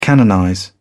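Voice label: "canonize", British English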